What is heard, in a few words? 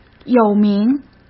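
"有名", Mandarin Chinese; hsk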